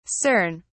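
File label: "cern", British English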